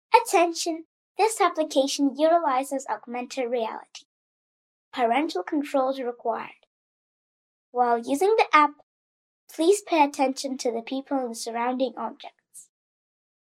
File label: girl ENG